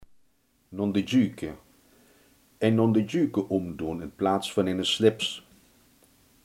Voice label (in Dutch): "vlinderdasje", limburgse